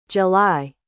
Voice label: "July", US English; تلفظ آمریکایی ژوئیه